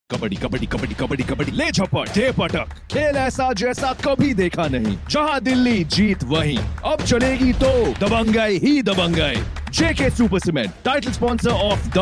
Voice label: AD hindi

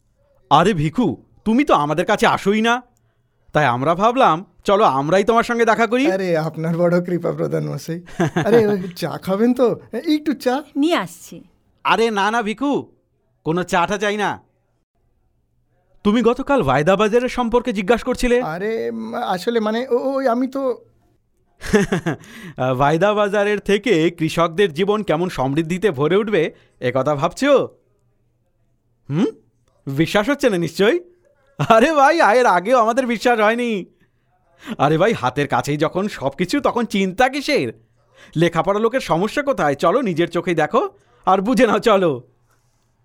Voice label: bengali

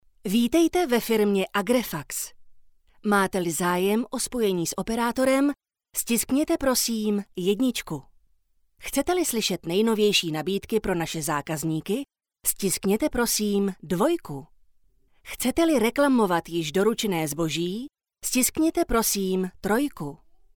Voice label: female Czech

